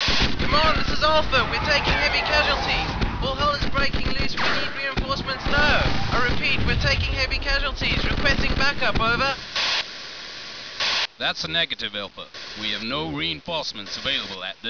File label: marine radio; cydonia